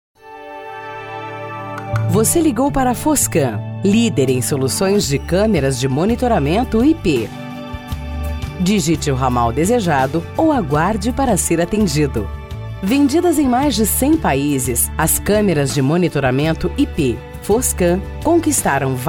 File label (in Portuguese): ESPERA TELEFONICA